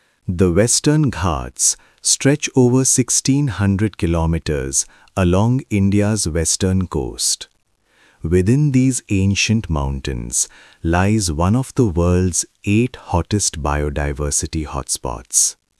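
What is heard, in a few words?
documentary, English India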